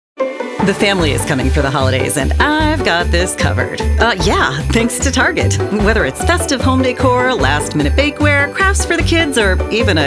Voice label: Commercial

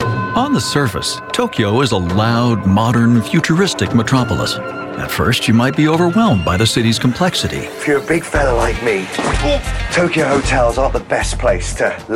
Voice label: NARRATION BROADCAST IN-SHOW

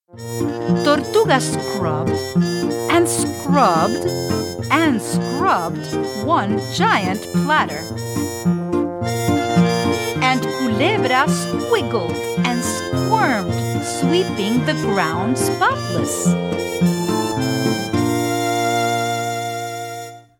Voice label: Audiobook; Manana Iguana

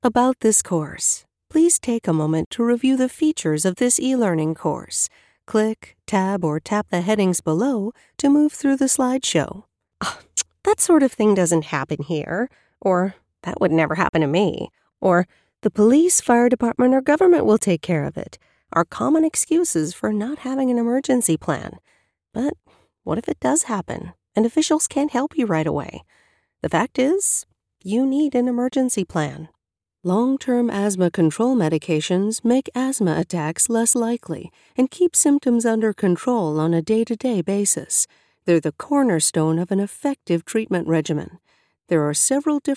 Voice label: eLearning

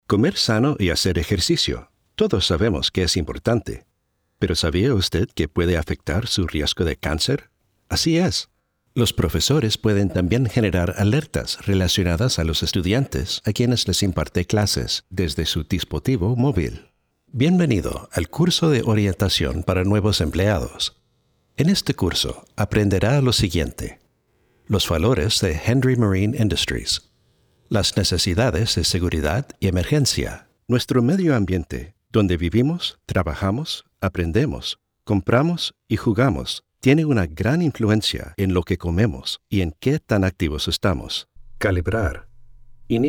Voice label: Latin American Spanish Narration

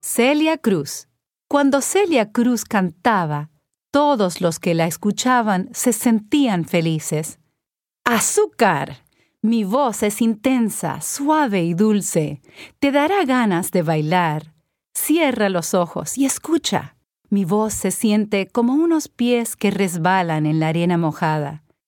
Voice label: Spanish; Celia Cruz

